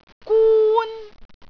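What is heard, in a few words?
"gun1", Cantonese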